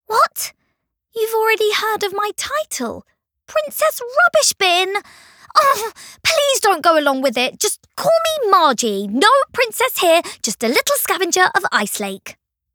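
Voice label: voice English; Margie; Maer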